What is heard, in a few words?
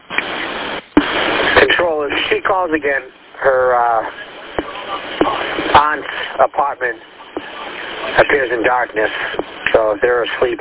Police Radio